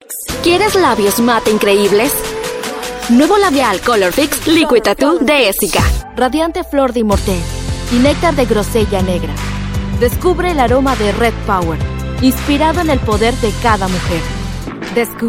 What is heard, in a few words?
Locucion, voiceover